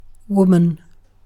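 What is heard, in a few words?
"woman", British English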